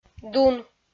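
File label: "дун", Komi, female; kpv audio dict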